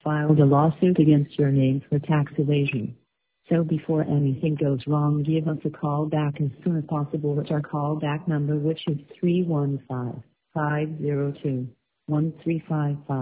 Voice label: IRS voicemail